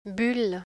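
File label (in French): "bulle", French; y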